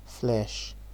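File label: "flesh", Nigerian Pidgin, male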